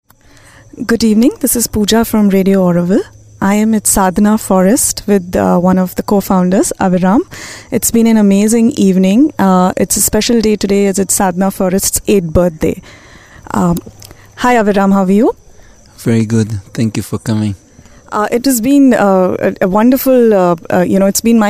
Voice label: interview english; sadhana forest; 8th birthday